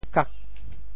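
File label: "kak8", Teochew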